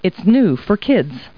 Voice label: people, kid

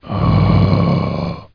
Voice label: zombie

